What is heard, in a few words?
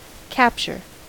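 "capture", American English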